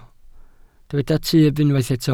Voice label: "tyb", Welsh